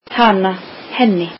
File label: icelandic her